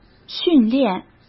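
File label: "训练", Mandarin Chinese; chinese-flashcards; hsk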